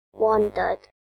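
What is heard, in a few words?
"wandered", British English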